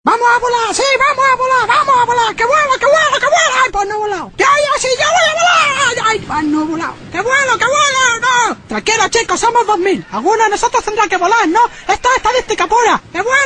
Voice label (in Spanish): anuncio; mixta pinguinos; Genial anuncio de Mixta en el que unos pingüinos intentan volar.